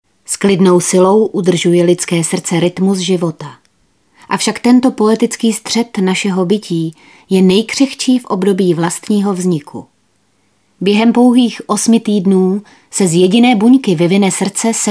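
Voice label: Female Czech documentary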